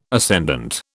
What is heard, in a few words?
"ascendant", British